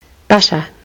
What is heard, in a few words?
"butter", kiwi english